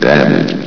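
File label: "damaged", announcer voice; Source Engine; bshift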